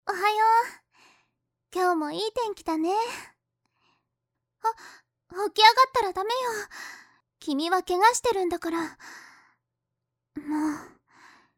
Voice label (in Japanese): マッサージしてあげるね♪, ASMR; SEなし